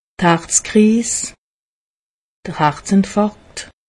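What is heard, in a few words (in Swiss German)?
"harzkriis", Alsatian; medecine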